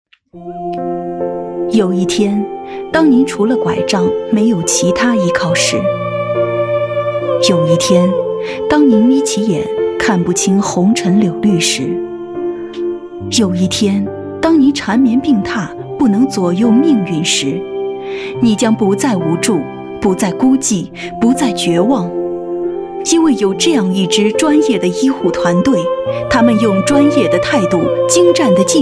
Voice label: 女 医院宣传片 柔和温暖; 南京鼓楼医院; 深情感人

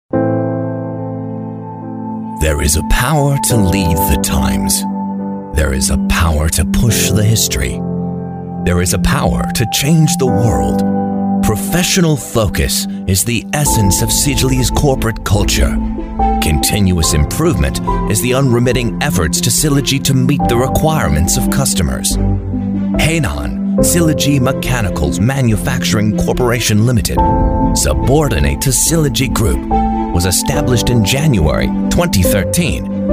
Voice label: 英式美式 深沉解说

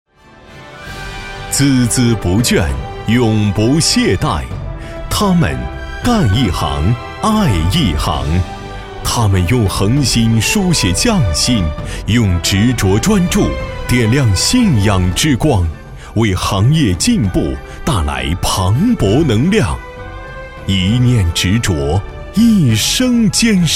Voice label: 男 颁奖 人物颁奖; 年轻浑厚 大气浑厚; 孜孜不倦

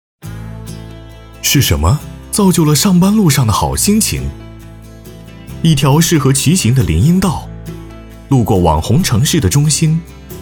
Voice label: 男 旁白解说 自然活力; 大气主流; 新华书店

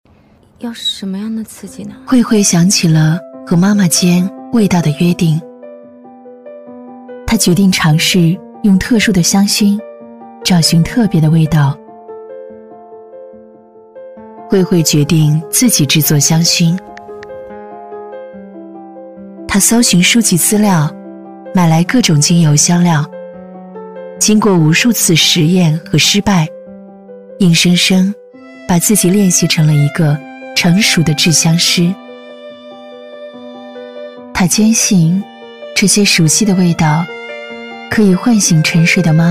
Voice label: 女 旁白走心 温情走心; 温和抒情; 华夏保险

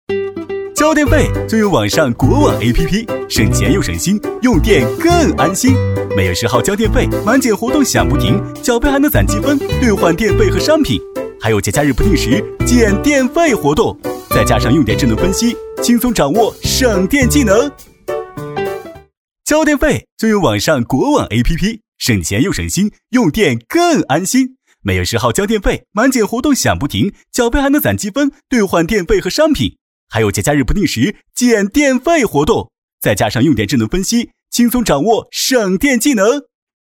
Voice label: 男 MG动画 轻松活泼; 男B44-明亮硬朗

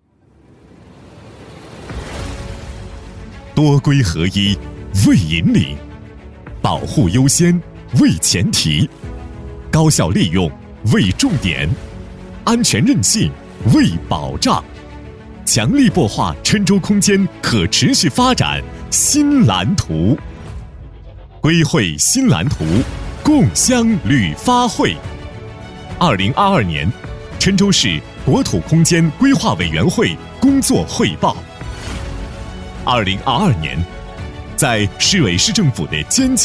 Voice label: 男 政府汇报 国土空间 大气厚重; 高端大气 大气浑厚

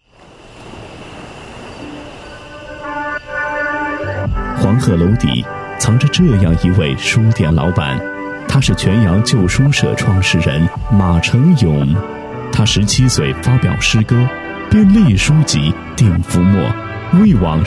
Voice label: 男 人物宣传片 自然解说; 泉之旧书社; 男A1-成熟浑厚; 质感磁性

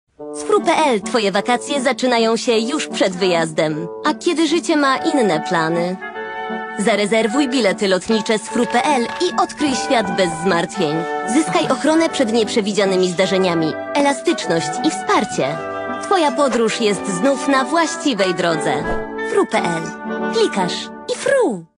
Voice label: 波兰语女声

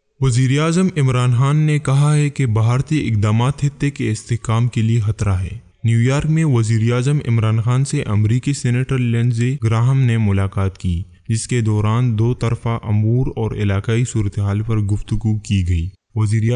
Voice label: 乌尔都语男声

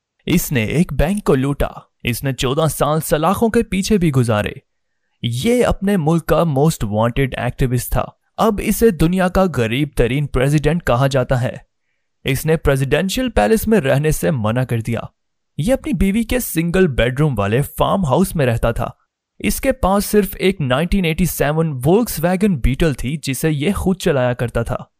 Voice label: 乌尔都语男声

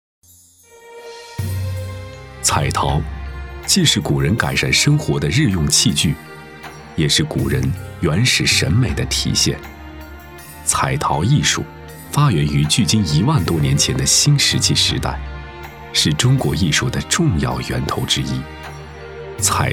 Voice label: 男 纪录片配音; 彩陶与现代绘画艺术; 大气主流 年轻舒缓

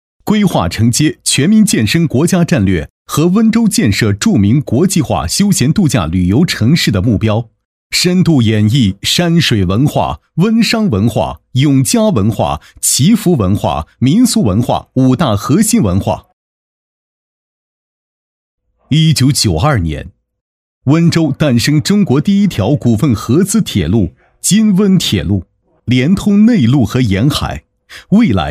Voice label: 男 宣传片 大气厚重; 年轻厚重; 大气浑厚; 大罗山

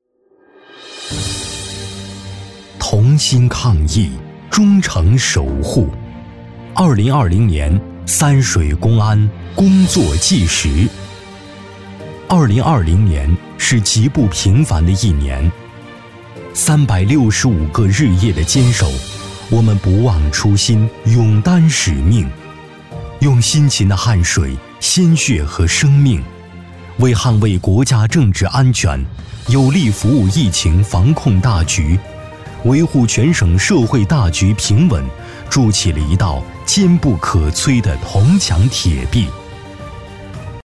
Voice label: 男 公安工作纪实 大气稳重; 三水公安

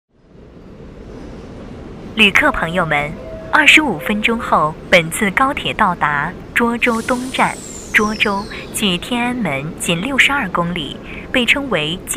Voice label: 女 广播提示 高铁报站-温馨柔和, 甜美亲切

